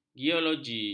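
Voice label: "geologi", Malay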